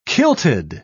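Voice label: "kilted", British English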